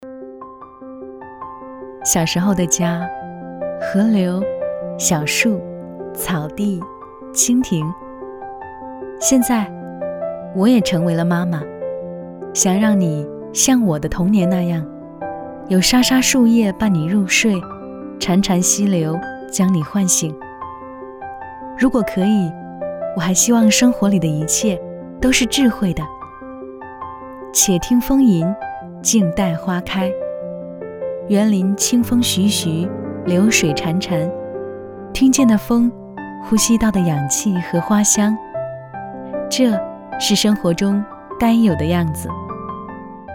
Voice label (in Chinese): 专题 产品 宣传 温情, 女国